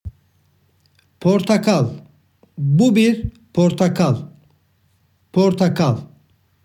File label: "portakal", Turkish; words for fruits and vegetables in turkish